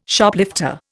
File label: "Shoplifter", Indian